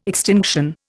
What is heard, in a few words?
"extinction", Indian